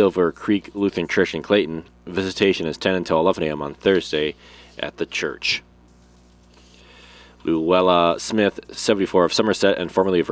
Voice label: Funeral Announcements